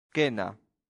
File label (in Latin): "cena", latin; santillana